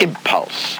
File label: LoFi Words, LoFi Vocals